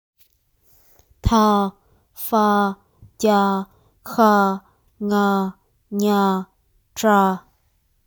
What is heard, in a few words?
Pronunciation combined consonants, vietnamese